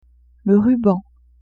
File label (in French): "ruban", French; vetements